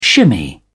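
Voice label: "shimmy", British English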